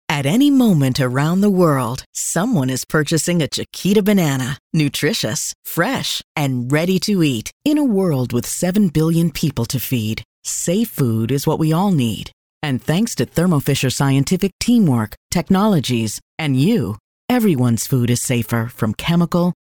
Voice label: NARRATION

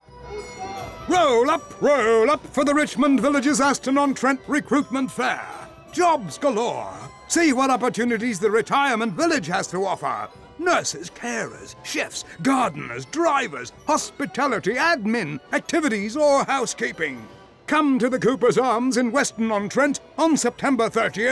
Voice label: Carnival Barker

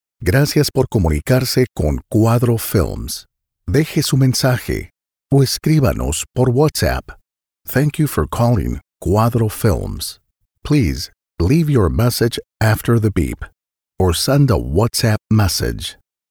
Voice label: IVR Bilingual

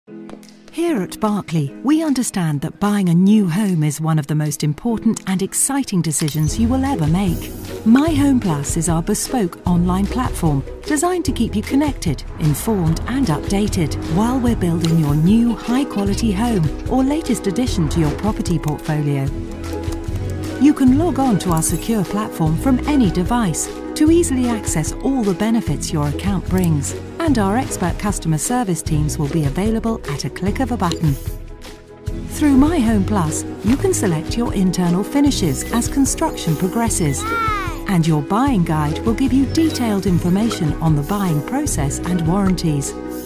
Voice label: Corporate Explainer; Berkeley Homes

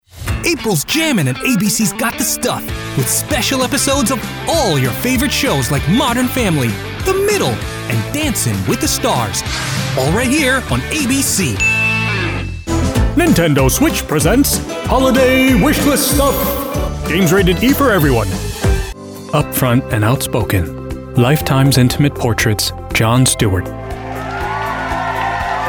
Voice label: promo radio tv youtube ad male voice over

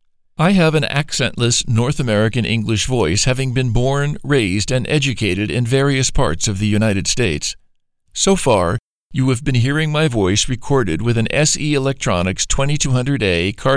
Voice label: Studio Sample

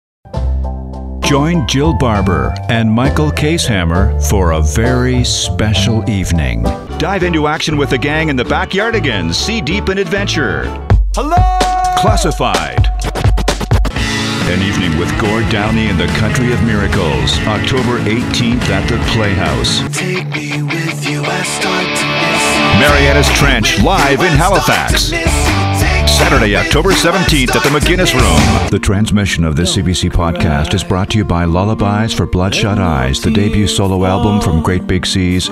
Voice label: Concerts Tour spots

